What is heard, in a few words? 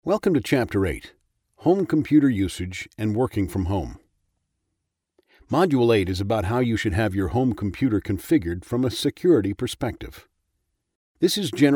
English Canadian e-learning